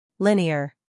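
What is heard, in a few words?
"scandal", American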